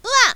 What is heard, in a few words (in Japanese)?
"うわっ", ミス